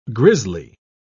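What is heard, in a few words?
"grizzly", British English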